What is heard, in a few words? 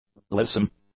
"lissome", english; adjectives